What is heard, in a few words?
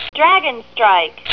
Battle Girl, "dragon strike"